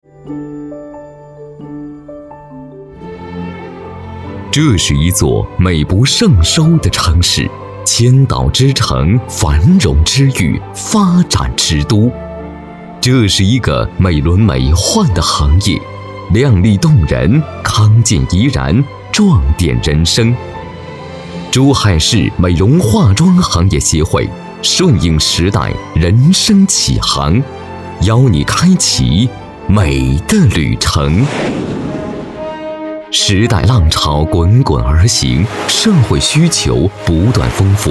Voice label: 宣传片

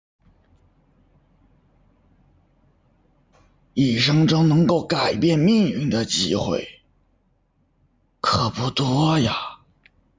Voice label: 男子

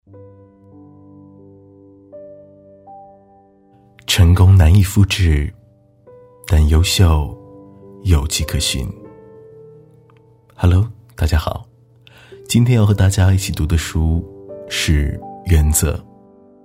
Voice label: 读书温柔洋气

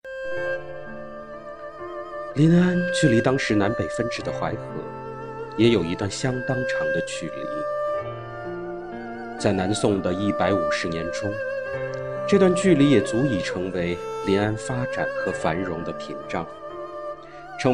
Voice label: 纪录片; 南宋

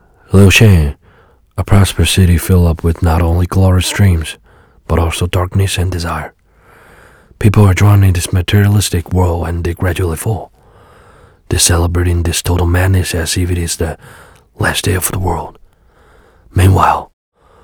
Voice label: 英语

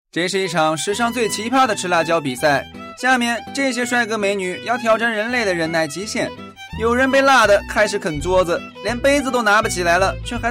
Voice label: 轻快高亢

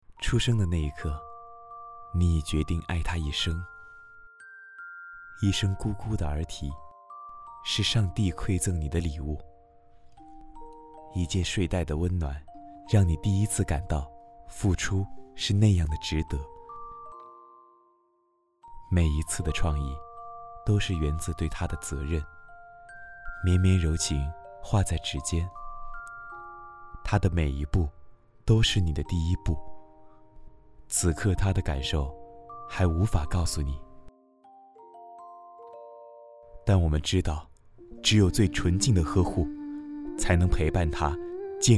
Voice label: 温馨柔软

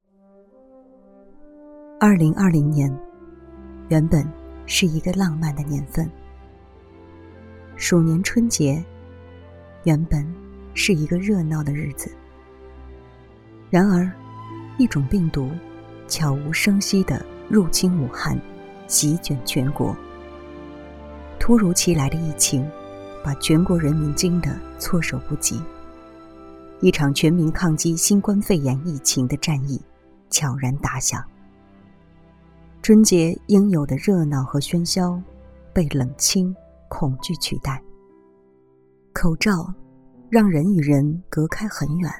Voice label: 走心旁白; 河源

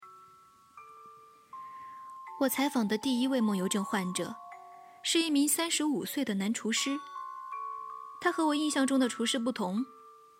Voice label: 悬疑故事片段

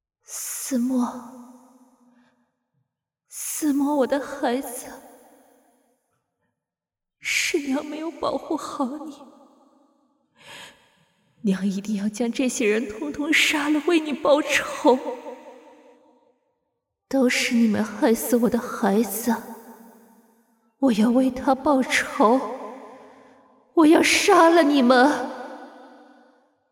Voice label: 女鬼

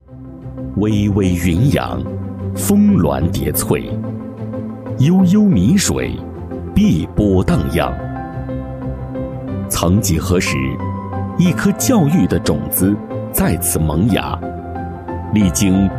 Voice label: 学校 专题片 配音

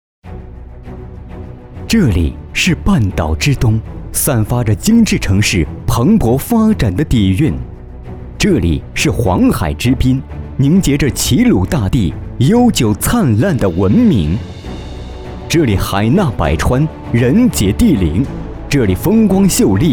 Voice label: 城市宣传样音

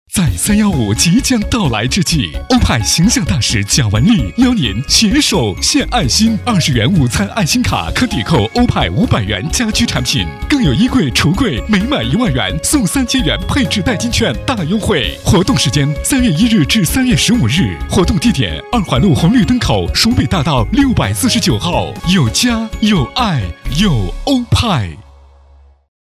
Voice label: 电台广告; 电台广告欧派活动

